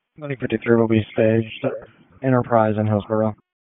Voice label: PS TAC, p25; wake